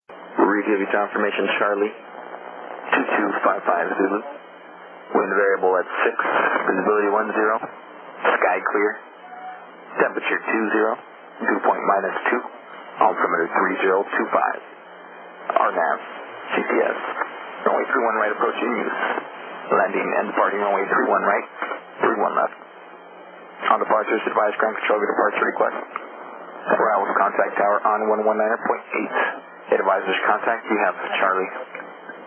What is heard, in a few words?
ATIS, USA; RHV